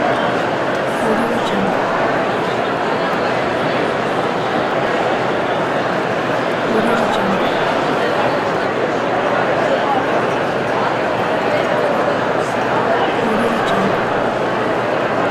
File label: indistinct people talking ambience at an expo; kilmermedia